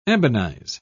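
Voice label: "ebonize", British English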